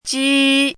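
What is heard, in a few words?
"jī", Mandarin Chinese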